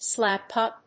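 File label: "slap-up", English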